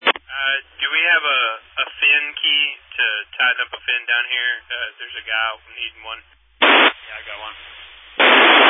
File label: Family Radio Service (FRS)